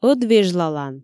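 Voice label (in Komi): ӧд вежлалан, Komi, female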